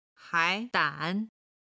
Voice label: "hai2 dan3", chinese